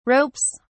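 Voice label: "ropes", British English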